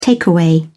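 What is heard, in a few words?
"takeaway", British English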